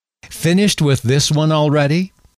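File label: finished With This One Already, male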